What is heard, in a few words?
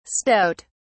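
"stoat(s)", English, British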